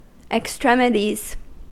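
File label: "extremities", American English; pronunciations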